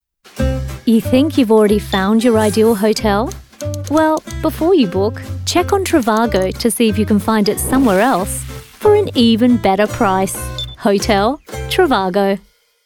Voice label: female english australian accent commercial; trivago